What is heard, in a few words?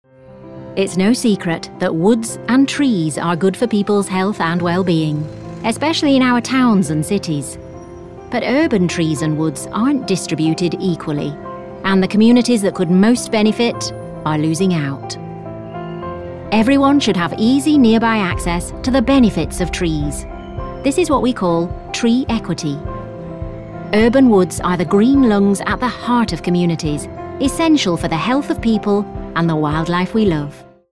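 English, UK, female, corporate northern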